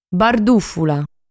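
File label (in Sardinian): "Bardùfula", Sardinian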